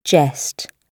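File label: "jest", British English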